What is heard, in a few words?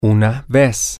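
once one time, Spanish, male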